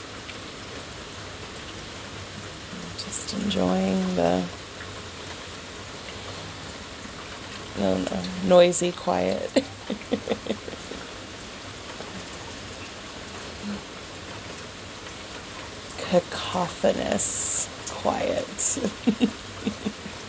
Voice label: Rainy Porch Ramble, rain sounds, bird sounds, relaxation